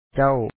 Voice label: "ca1w", Lao; ເຈົ້າ